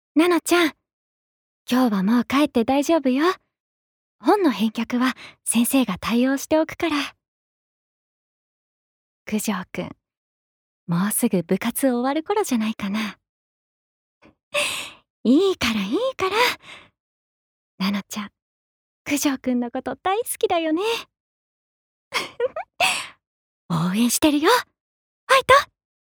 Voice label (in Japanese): アニメ